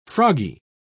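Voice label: "froggy", British English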